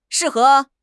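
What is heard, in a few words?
"shi4 he2", Mandarin Chinese; HSK4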